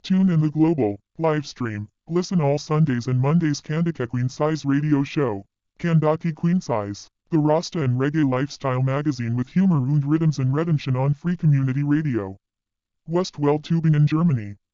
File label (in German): Jingel tiefe Stimme; Reggae